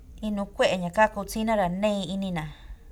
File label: SIM, San Juan Mixtepec, Santa Cruz